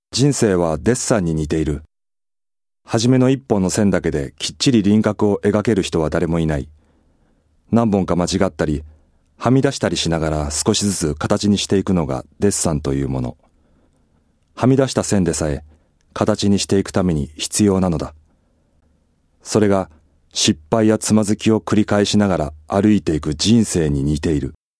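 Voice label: male voice, narration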